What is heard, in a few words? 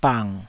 "bang3", taiwanese syllable; 台語音節發音檔案